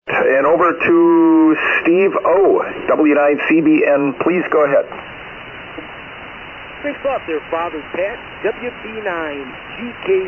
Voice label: Kenwood TS-520S; Milwaukee, Wi.

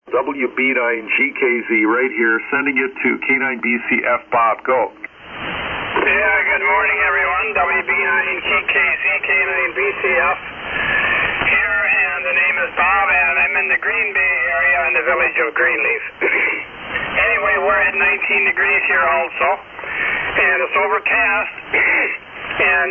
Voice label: Heathkit SB-102 & D-104; Greenleaf, Wi.

